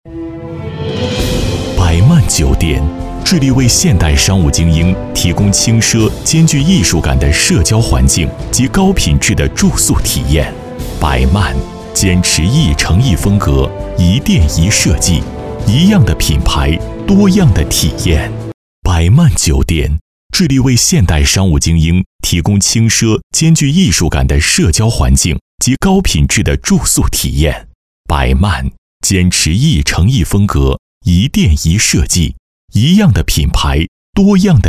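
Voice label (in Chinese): 广告温暖时尚; 柏曼酒店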